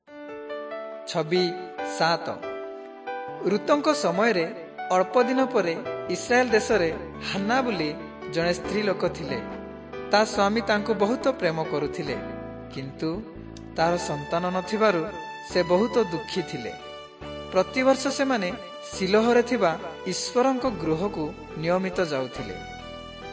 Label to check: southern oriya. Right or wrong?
Right